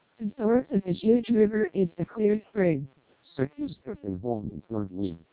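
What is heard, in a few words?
American, SNR=10dB, NCSE Enabled, Vocoder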